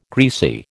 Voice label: "greasy", American

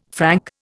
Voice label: "Frank", Indian

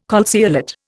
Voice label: "calceolate", Indian

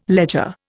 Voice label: "ledger", Indian

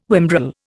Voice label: "whimbrel", Indian

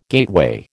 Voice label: "gateway", American

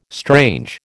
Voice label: "strange", American

